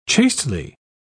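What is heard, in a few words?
"chastely", British English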